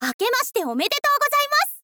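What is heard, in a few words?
akemashite omedetou gozaimasu, Japanese, girl; voice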